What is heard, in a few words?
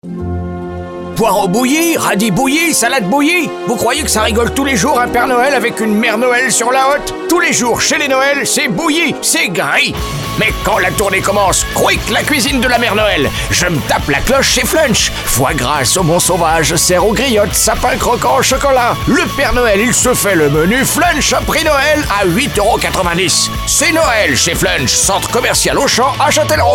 spot, radiopub